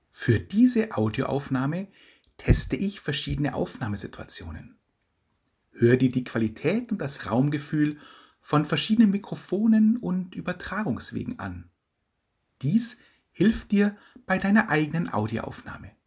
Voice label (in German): podcast diktiergeraet vor PC, small room - in front of the PC; small room - reflective walls / surfaces